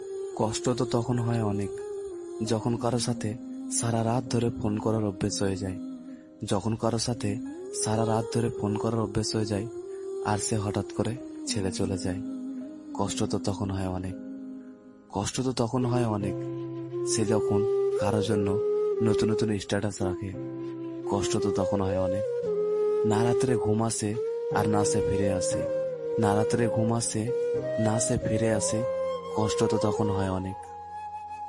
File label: Bengali Sad Love Shayari Ringtone